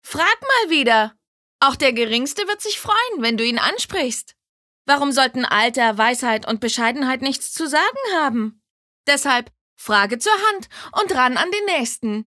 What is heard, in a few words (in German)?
Werbung Frech